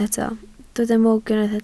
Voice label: "mwg", Welsh